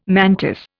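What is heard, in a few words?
"mantis", Indian English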